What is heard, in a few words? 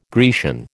"Grecian", American